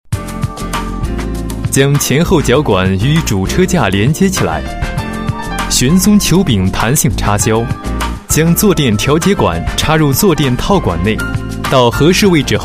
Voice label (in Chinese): 男 课件解说 安装解说; 舒华